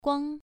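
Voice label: "guang", Mandarin Chinese, first tone, pronunciation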